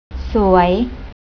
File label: "suay", Thai; travel